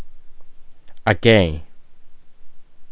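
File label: "again", Japanese, male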